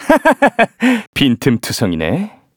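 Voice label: Vox Skill, Korean; Kibera; kingsraid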